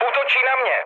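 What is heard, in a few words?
aircraft damaged, Czech, mood med; War Thunder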